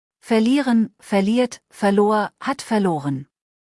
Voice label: "verlieren", deutsch; verben kurzliste